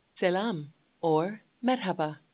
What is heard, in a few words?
Turkish, hello